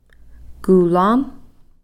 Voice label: "kulam", Cow Creek